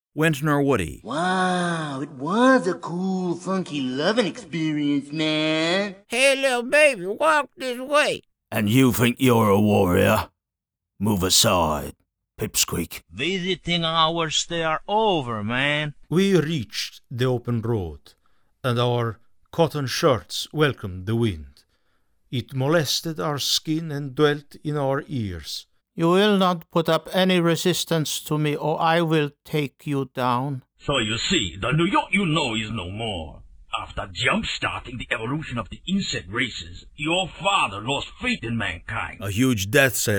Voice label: Character Voices